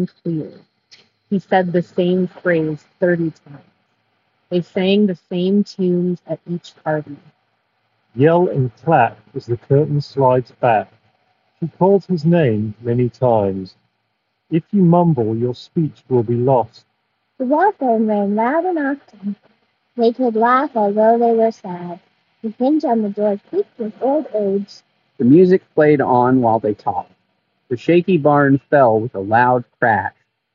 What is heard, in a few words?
Skullcandy Rail ANC Street microphone sample